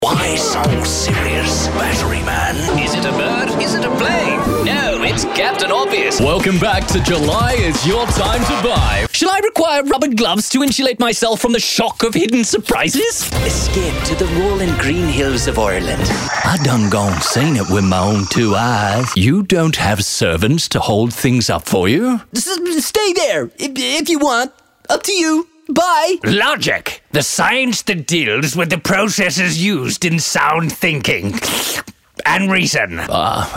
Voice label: english australian video games